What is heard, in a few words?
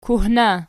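"kuhna", nominative, Archi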